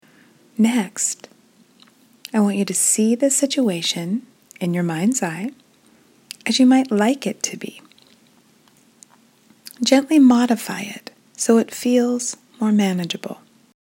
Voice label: meditation